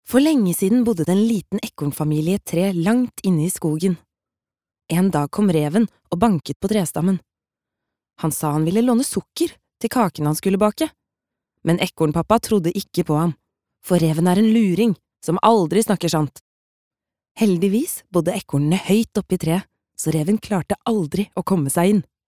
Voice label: no childrens book

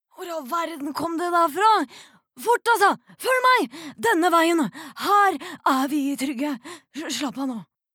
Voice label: no dub character, norwegian, female

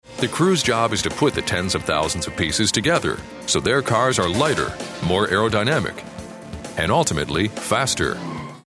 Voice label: us narration; engelsk-us; mann

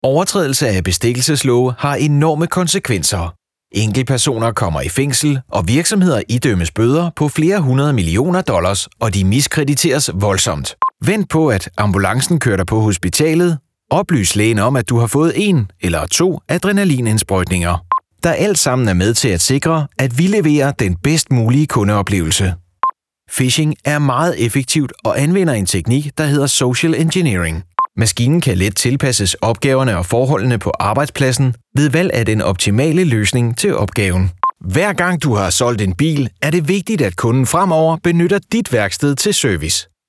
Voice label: dk elearning

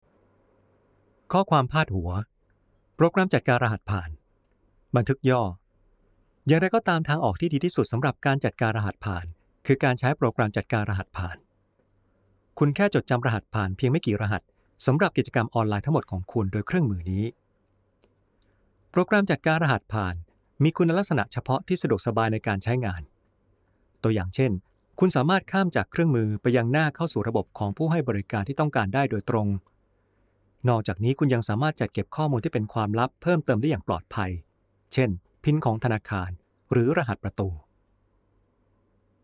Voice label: Thai e-learning, thai male

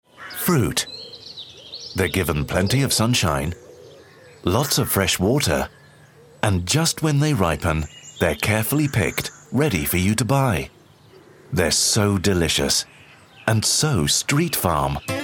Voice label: uk com

